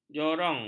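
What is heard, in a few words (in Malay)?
"jorong", Malay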